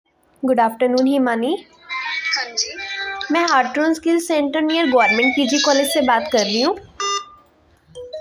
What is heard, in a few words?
call recording